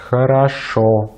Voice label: "хорошо", Russian; Consonantes en ruso; easypronunciation